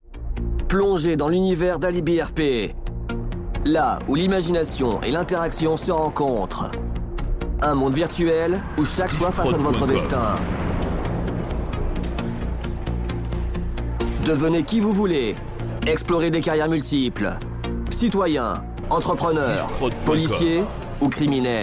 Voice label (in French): Voix Auto promo; AlibiRP; GTA5